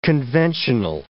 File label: "conventional", English